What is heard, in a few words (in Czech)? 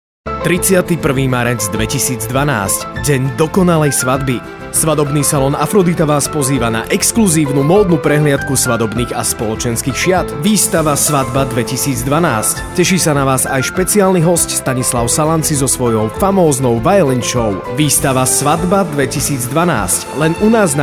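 reklami spot; dendokonalesvatby; afrodita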